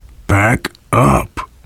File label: backup quiet, survivor voice biker; Left 4 Dead 2